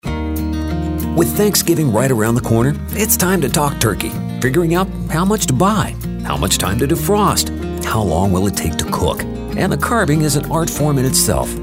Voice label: friendly